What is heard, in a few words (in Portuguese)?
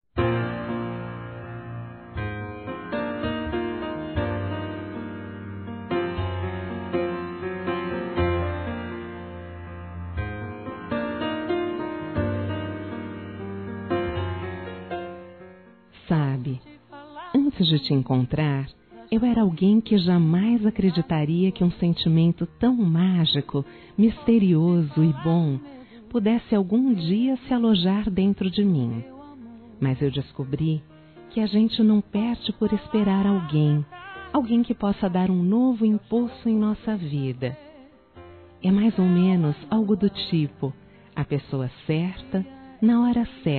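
Namorados, female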